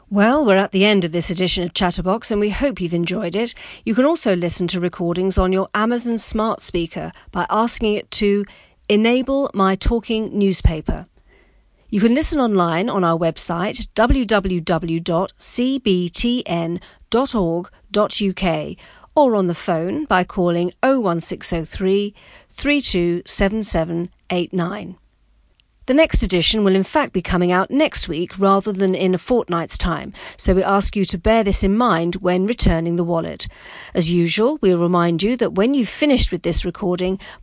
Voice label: Closing Announcement